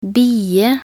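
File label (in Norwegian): "bie", Norwegian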